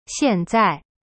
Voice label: "现在" xian zai, chinese

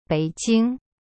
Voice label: "北京", Chinese; bei jing

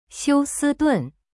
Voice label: "休斯顿", Chinese; xiu si dun; cyberchinese

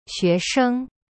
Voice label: "学生" xue sheng, chinese; cyberchinese

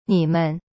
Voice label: "你们" nimen, chinese

